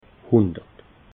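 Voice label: "hundert", Alsatian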